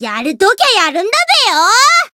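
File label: Voice chant, Japanese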